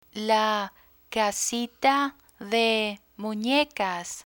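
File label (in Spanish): "casita de munecas", Spanish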